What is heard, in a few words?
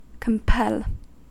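"compel", American English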